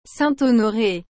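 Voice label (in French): "saint honoré", French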